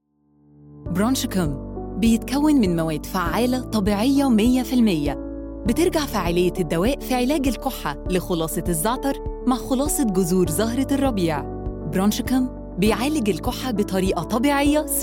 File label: Arabic with Music; Bronchicum